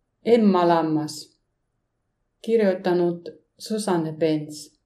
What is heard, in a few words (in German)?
Titel finnisch